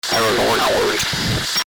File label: cockpit radio communication